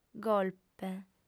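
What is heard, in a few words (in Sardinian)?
"golpe", Sardinian, female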